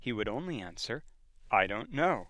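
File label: General American accent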